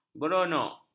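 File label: "beronok", Malay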